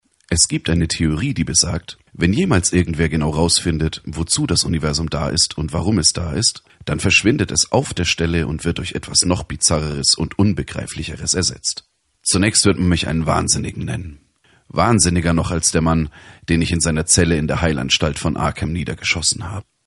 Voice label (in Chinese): 德语男声